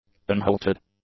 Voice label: "unhalted", english; adjectives

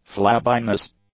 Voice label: "flabbiness", English; nouns

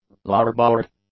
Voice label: "larboard", English; nouns

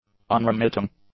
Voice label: "unremitting", english; adjectives

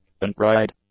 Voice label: "untried", english; adjectives

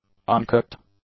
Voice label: "uncooked", English; adjectives

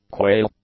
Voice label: "quail", English; nouns

